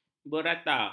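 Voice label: "berata", Malay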